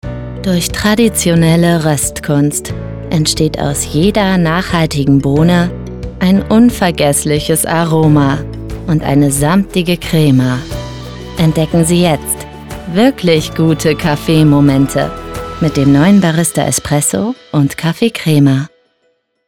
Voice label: Werbung Kaffee; LOFT VOICES

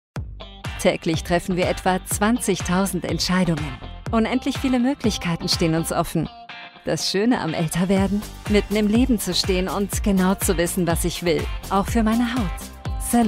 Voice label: WERBUNG warm sanft; NEUTROGENA; Cellular Boost; LOFT VOICES

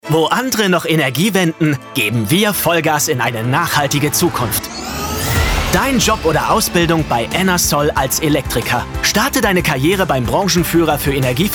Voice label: Karriere Radio Spot; enersol-GmbH; bigFM

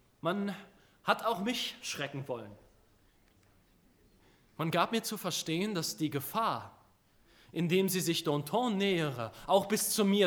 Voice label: Monolog Robespierre; LOFT VOICES

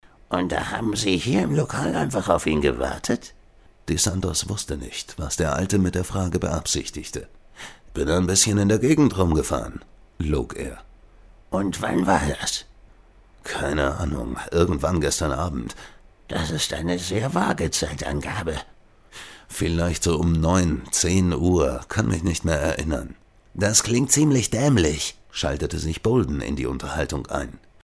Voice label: Hörbuch; Neversleep

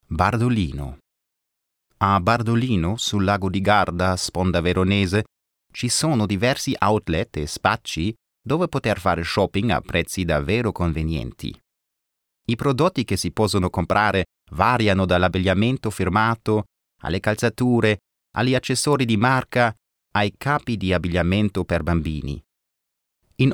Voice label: italienisch

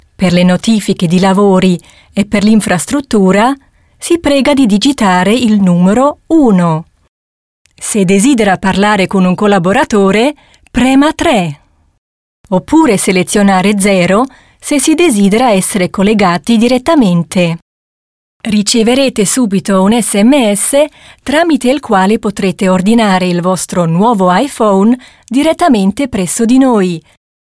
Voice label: italienisch IVR; Swisscom

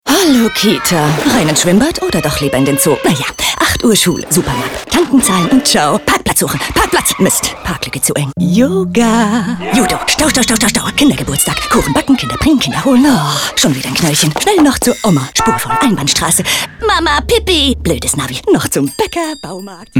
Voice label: TV Spot NRW E Car

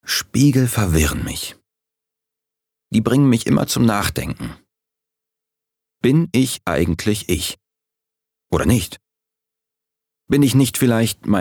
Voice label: Innerer Monolog; LOFT VOICES

